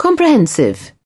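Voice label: "comprehensive", British English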